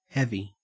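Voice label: "heavy", Australian English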